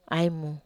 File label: "ai mu", wamesa